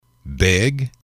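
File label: "big", English, male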